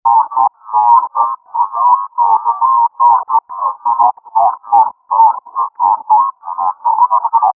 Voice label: alien speech

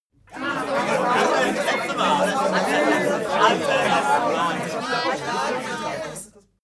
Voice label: talk upset short

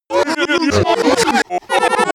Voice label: glitch talk fx

